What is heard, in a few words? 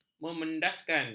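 "memedaskan", Malay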